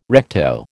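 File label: "recto", American English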